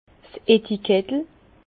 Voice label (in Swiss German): "etikettel", Bas Rhin; vin; étiquette